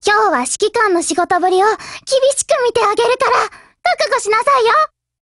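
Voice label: "hello", Japanese; GF Alarm; T65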